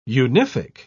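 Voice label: "unific", British English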